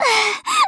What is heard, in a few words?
die, Voice Line, JPN; Eternal Return; Tia